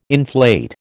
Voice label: "inflate", American